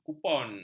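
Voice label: "kupon", Malay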